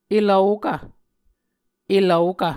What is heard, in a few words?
"elvokv", Muscogee